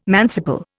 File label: "manciple", Indian